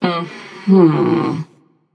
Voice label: agree passion; chaac

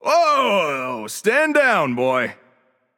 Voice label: taunt directed; fenrir; smite; loki

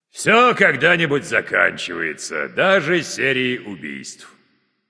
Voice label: kill streak breaker, russian; caster

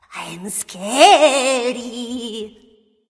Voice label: spooky; cupid

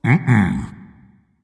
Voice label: disagree dragon; fafnir

